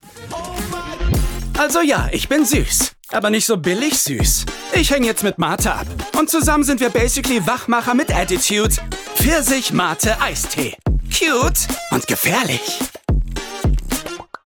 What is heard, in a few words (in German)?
Commercial; EISTEE